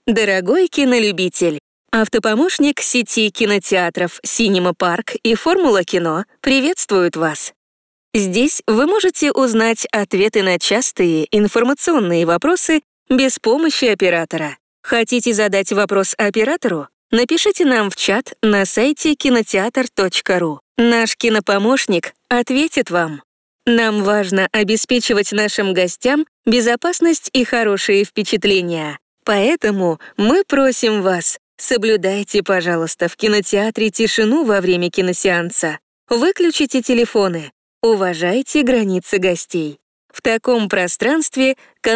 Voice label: IVR; Kinoteatr